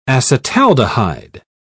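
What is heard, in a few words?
"acetaldehyde", British English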